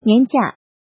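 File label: "Niánjià", Chinese; Tu vung nghi phep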